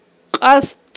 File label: "кьастт", Aghul